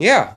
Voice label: "yeah", voice

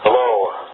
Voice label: "hello", irate black man; Voice; introductions; hello hi hey

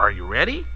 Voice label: are you ready, cartoon

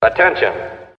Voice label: attention subway conductor; Voice; introductions

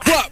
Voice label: "fuck", expletives

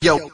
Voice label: "yo", voice

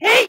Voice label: "hey", 8 bit fighting gal

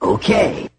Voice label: okay 8 bit arcade dude

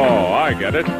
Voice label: "oh i get it", male; Voice

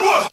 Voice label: what group of men; ooh ahh

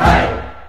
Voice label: "hey" group of men; ooh ahh

